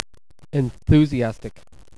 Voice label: "enthu", usa